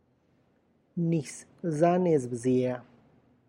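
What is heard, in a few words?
Tlacochahuaya Zapotec; well water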